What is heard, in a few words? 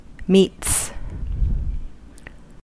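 "meets", American English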